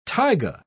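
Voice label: "taiga", British English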